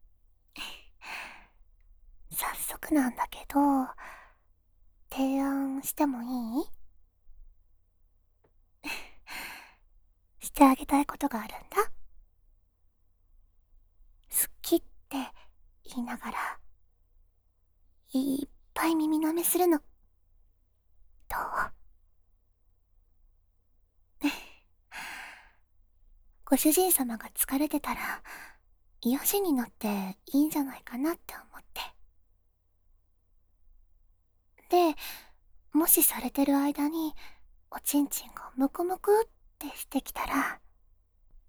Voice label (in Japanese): スキ×耳舐めの提案; 3人のお屋敷メイドの週末おま○こ奉仕; 深雪; seなし差分